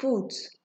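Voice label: "put", Nigerian Pidgin, female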